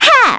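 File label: Vox, Korean; Cassandra; kingsraid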